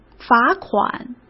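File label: "罚款", Mandarin Chinese; hsk; chinese flashcards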